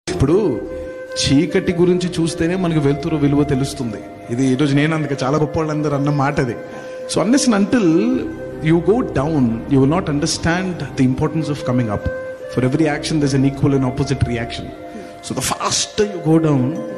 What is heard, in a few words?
telugu motivational words, speech; ntr speech; true words